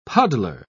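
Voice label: "puddler", British English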